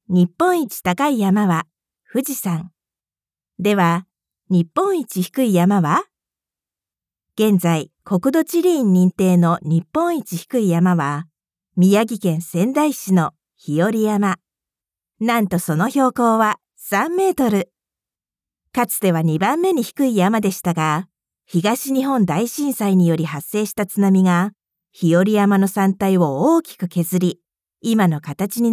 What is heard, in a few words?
japanese narration